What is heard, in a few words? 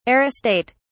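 "aristate", British English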